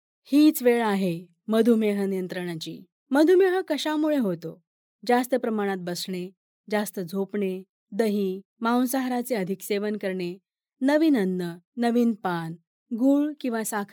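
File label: Marathi India, female, voice over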